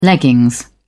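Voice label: "leggings", British English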